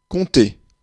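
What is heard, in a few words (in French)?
"compter", French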